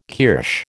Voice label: "kirsch", American

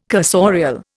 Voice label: "cursorial", Indian

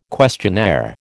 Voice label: "questionnaire", American

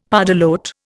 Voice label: "pardalote", Indian; Dictionary

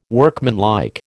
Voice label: "workmanlike", American

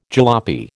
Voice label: "jalopy", American

